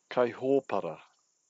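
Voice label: "kaihopara", Māori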